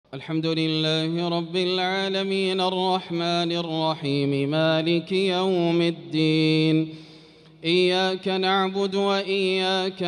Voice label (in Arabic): أم الكتاب بترتيل; taraweeh